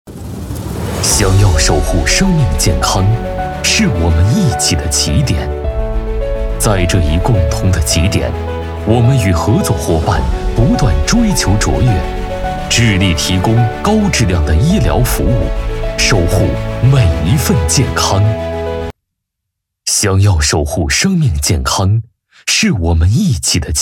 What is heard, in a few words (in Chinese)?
男 宣传片 医疗